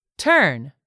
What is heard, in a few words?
"turn", English word; 3000 words